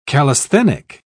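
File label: "callisthenic", British English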